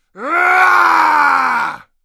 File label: buddy killed, male; ep2; Source engine